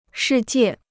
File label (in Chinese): "世界 (shì jiè)", Chinese